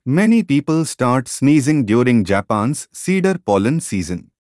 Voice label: Sneeze Snooze; sneezeとsnoozeの違いとは？意味・聞き分けのコツ